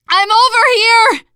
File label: ledge hang end, teengirl